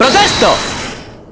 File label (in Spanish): "Objection", Spanish; Apollo